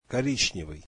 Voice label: russian brown